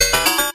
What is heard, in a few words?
comedy voice